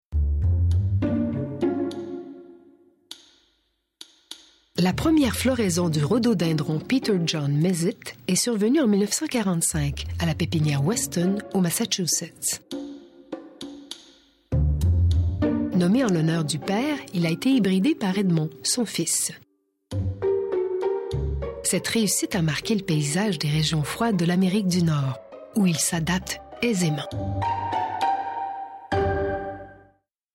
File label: narration